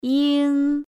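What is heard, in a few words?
"ing", Chinese pinyin final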